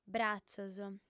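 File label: "bratzos", female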